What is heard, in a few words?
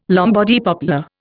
"Lombardy poplar", Indian